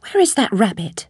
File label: chitchat; svencoop; Alice